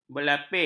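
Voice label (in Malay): "berlapik", Malay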